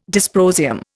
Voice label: "dysprosium", Indian